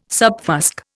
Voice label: "subfusc", Indian